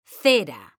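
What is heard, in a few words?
"CERA", Spanish, female; mekanta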